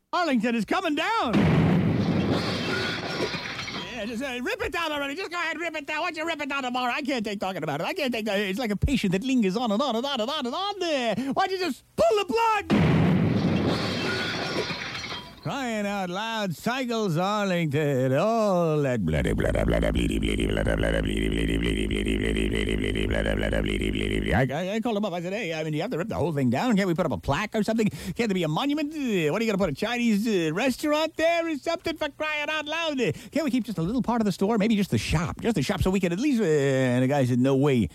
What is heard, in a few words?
Commercial